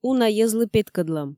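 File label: "уна йӧзлы петкӧдлӧм" Komi, female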